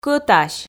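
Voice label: "кӧтась", Komi, female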